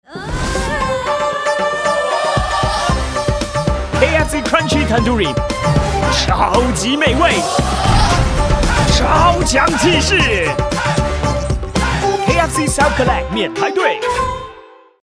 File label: Mandarin - Character, Throaty; KFC